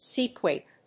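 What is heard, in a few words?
"seaquake", English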